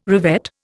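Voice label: "revet", Indian English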